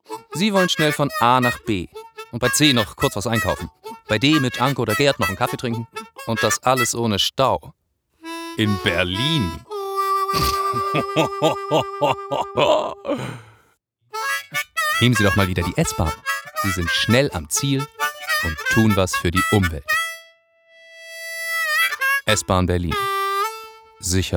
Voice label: German commercial